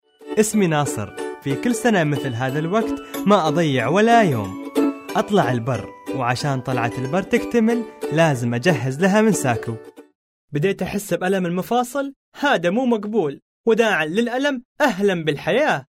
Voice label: male arabic voice over sales